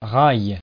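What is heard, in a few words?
"rail", French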